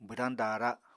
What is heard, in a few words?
"bwdañ daara", Apatani